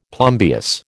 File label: "plumbeous", American